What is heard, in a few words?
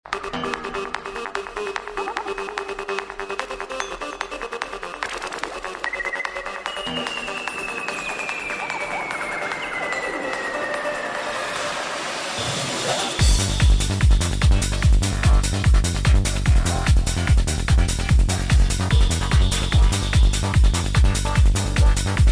Speaking Robots